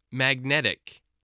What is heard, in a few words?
"magnetic", spelling voice